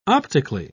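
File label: "optically", British English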